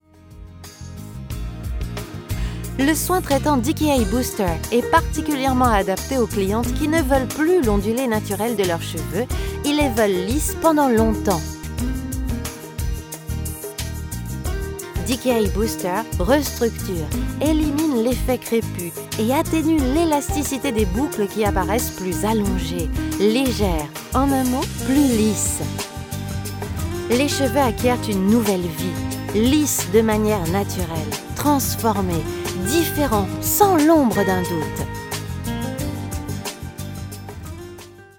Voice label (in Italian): Aziendale francese